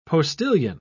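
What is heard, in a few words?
"postilion", British English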